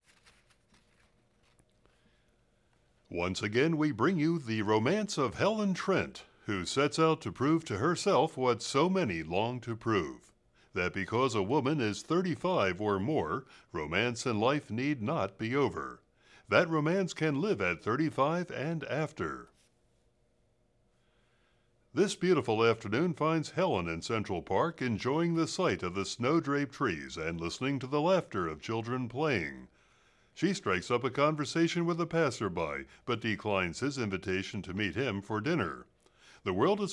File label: radio announcer; sound effects and sound reinforcement